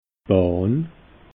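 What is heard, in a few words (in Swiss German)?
"bahn", Alsatian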